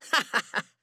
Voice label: Emote Positive

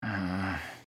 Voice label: Emote Negative

